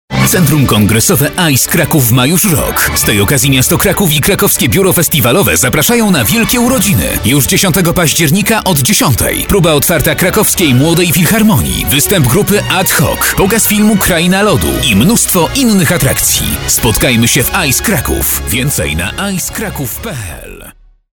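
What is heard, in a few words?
Polish, male, announcement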